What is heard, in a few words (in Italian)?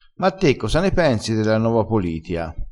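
"quando voglio", vocabolario dialettale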